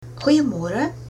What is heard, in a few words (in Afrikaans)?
good morning, afrikaans